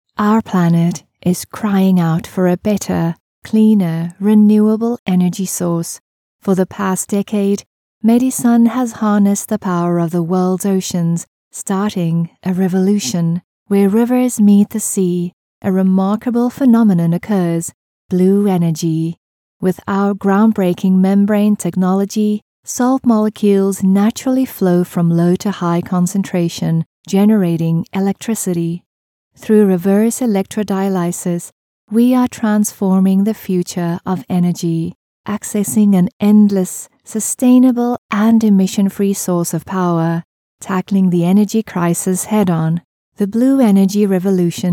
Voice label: english international commercial; Synchronsprecher aus Südafrika